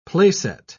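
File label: "placet", British English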